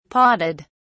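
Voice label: "podded", British English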